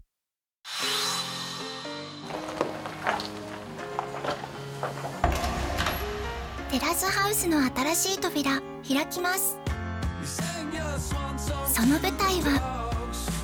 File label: narration